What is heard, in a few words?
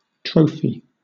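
"trophy", British English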